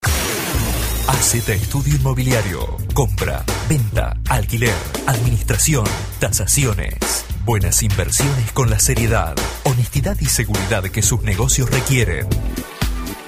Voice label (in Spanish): Voces Masculinas